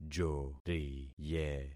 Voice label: "joriye", concat syllables; Affixing experiment